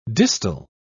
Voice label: "distal", pronounce